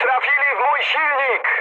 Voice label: damaged engine mood high, speech pol; War Thunder